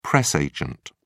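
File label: "press agent", British English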